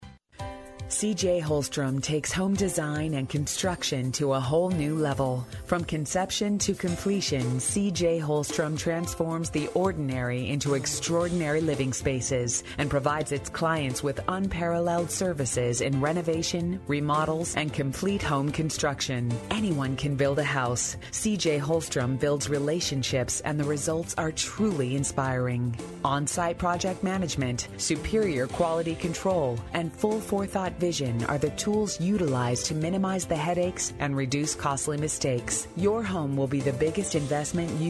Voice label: promo